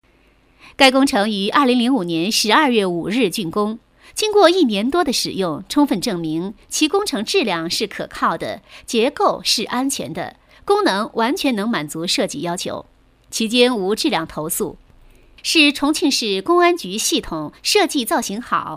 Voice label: 多媒体 工程解说, 国语女声配音

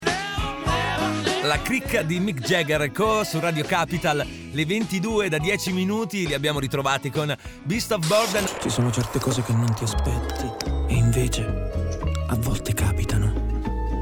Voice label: 意大利语男-DJ播报风格

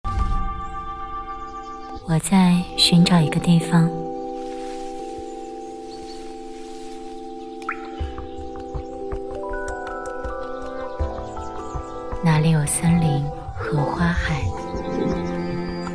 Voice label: 女, 旁白; 灵与美

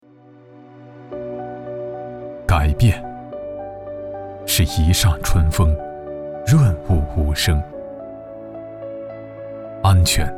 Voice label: 男 公益 宣传; 市监局

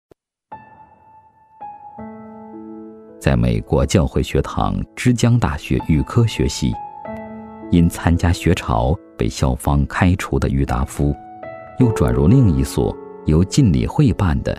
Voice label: 男-纪录片

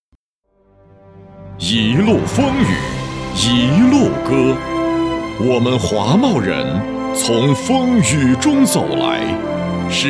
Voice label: 男 企业专题; 华茂公司

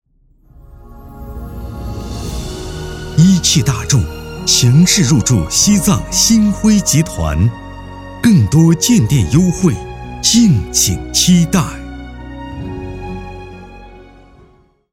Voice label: 男 汽车广告 大气低沉; 一汽大众

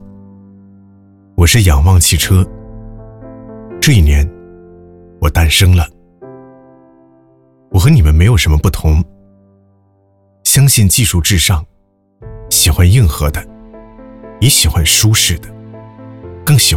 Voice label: 男,时尚沉稳,汽车; 我是仰望汽车 D5

